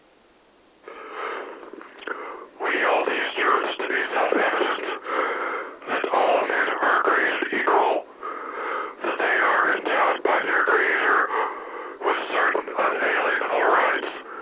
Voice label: combined whisper fm